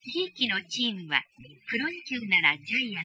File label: enhanced smooth Wiener; binaural speech enhancement; s 0deg n -45&45deg